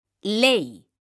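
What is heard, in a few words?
"ley", Spanish, female; mekanta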